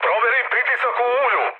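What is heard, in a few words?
Serbian damaged oil mood med, speech; War Thunder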